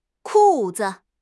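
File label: "ku4 zi5", Chinese; HSK; HSK3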